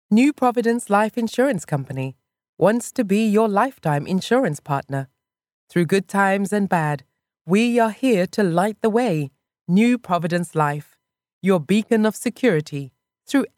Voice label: Commercial